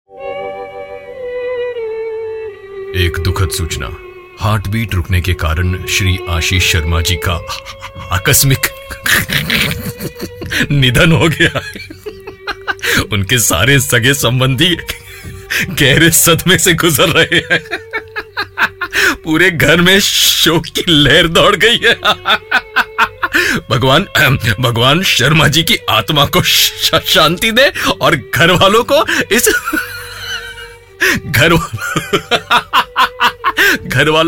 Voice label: Funny Obituary Radio Commercial